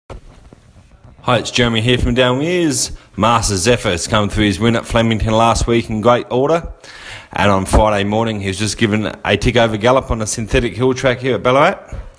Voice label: voice note; Master Zephyr ZEPHYR